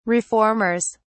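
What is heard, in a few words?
"reformers", English, uk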